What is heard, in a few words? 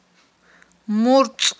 "мурцI", Aghul